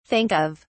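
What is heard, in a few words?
"think of", British English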